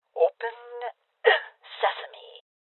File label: Voices Door Kick Female Old; rebel cops 2019 gamerip